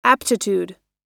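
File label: "aptitude" US English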